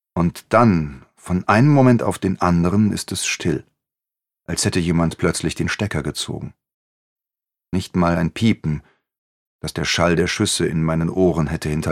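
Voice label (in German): Hörbuch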